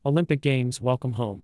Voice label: baseline conversational male